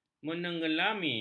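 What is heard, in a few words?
"menenggelami", Malay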